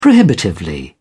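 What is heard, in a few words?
"prohibitively", British English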